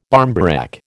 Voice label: "barmbrack", American